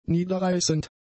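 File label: "niederreißend", German; adjectives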